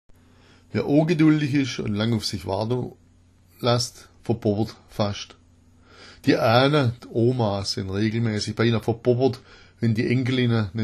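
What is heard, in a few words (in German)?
verbobbera, schwäbisch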